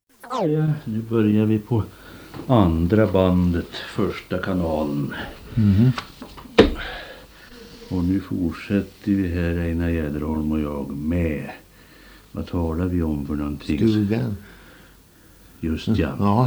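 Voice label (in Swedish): Ovansjö Gästrikland, dialekter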